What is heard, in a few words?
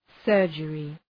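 "surgery", English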